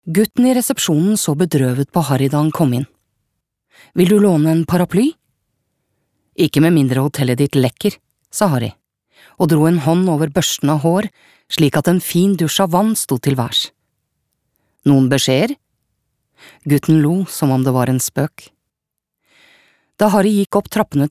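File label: Norwegian audiobook; norsk; kvinne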